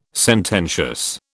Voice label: "sententious", British

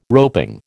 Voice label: "roping", American

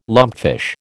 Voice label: "lumpfish", American

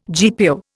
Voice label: "GPO", Indian

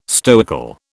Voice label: "stoical", British

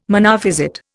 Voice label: "Monophysite", Indian

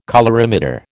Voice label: "colorimeter", American

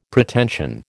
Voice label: "Pretension", American

